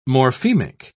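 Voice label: "morphemic", British English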